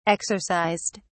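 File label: "exercised", British English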